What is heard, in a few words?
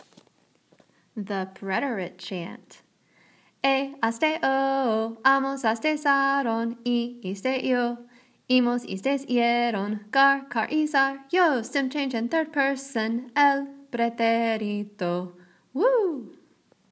the preterite chant